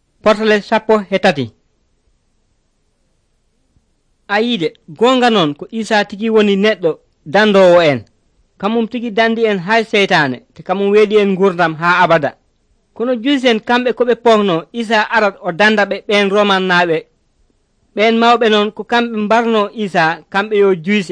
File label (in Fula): pulaar fulacunda, drama; pulaar bible society of senegal nt